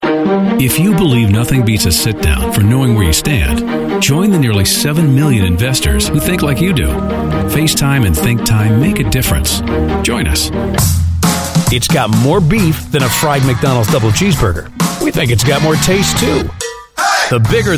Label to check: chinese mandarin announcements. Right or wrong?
Wrong